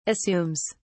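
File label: "assumes", British English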